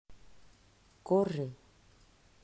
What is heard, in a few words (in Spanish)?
pronunciación "Corre"